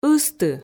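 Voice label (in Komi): "ысты", Komi, female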